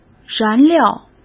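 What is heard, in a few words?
"燃料", Mandarin Chinese; hsk; chinese-flashcards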